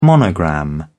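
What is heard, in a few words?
"monogram", English, British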